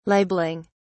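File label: "libeling", British English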